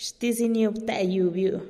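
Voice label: "xtiziniub-te yiubiu", Teotitlán del Valle Zapotec; muchas gracias ud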